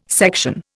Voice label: "section", Indian